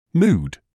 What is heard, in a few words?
"mood", English